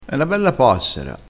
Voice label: "passera", dialettale

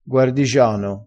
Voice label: "guardigiano", crespinese

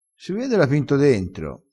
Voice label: "si vede", crespinese

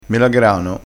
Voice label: "melagrano", crespinese

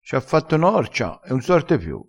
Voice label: "fa norcia", crespinese

